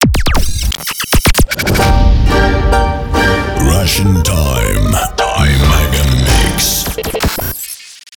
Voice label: РАДИО ДЖИНГЛ; Рашн Тайм

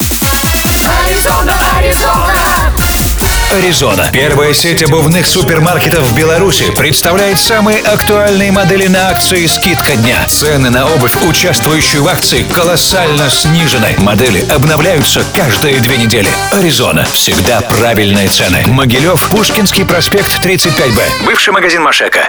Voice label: РЕКЛАМНЫЙ РОЛИК; Аризона - Скидка Дня

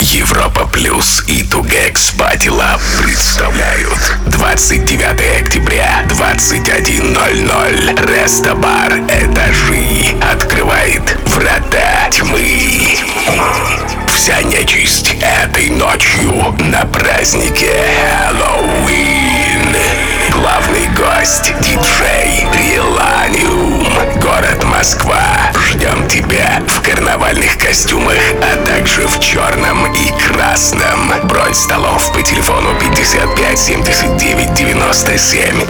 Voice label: Диктор, Ролик, halloween

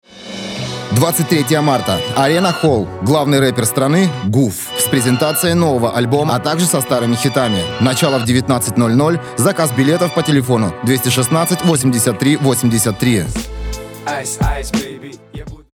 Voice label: Радио ролик; для 107.7

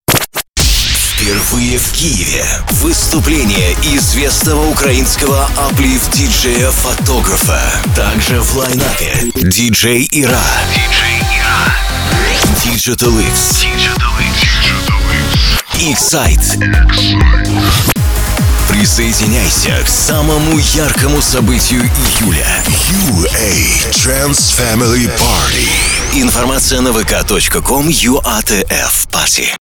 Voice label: Аудиореклама; UATF Party

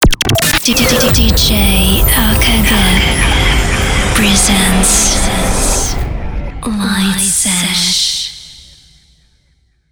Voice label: DJ ДЖИНГЛ

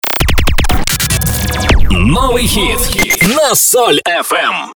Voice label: ДЖИНГЛ Новый Хит Открывашка; Новый Хит На Соль Эф Эм - Открывашка 3

